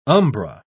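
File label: "umbra", British English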